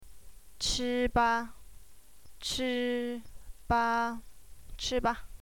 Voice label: eat ba, chinese